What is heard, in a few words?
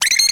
Hurt; SNES; Bishoujo Senshi Sailormoon R; VFX; Sailormars